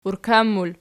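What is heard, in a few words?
"urkan" plural, Archi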